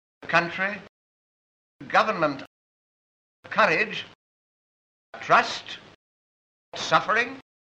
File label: rp n strut, kent examples; stanley baldwin